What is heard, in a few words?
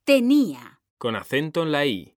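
"tenía", Spanish, female; mekanta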